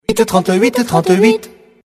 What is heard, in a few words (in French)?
jingle, voix adulte